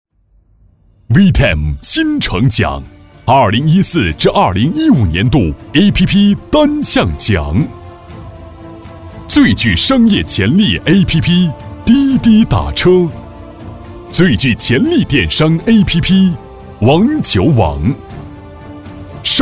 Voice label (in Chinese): 专题 颁奖 互联网企业颁奖 浑厚, 男国